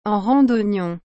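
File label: "en rang dognons", French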